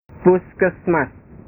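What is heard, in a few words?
"puskesmas", Indonesian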